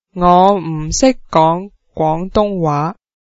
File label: i dont speak cantonese, cantonese